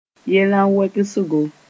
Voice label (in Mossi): "yellã wegsgo", moore